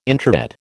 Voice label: "introit", American